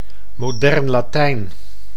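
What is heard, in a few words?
"modern Latijn", Dutch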